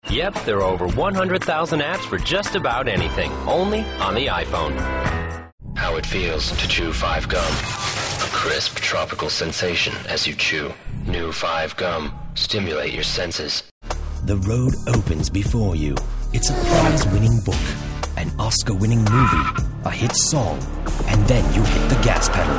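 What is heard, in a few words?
American English, TV commercial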